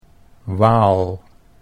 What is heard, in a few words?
"Waal", Dutch